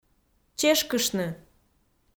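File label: "чешкыштны", Komi, female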